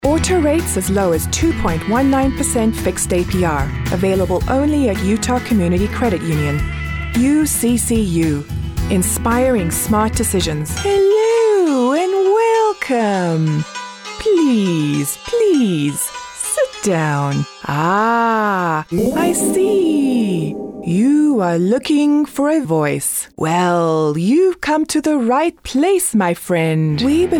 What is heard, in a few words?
Commercial